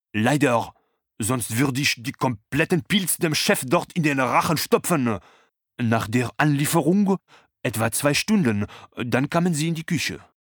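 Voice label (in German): Charge französischer Koch Hörbuch; audible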